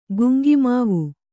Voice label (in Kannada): "ಗುಂಗಿಮಾವು", Kannada